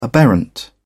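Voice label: "aberrant", British English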